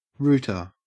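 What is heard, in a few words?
"rooter", Australian English; pronunciations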